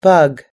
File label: "bug", US English